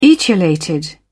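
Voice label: "etiolated", British English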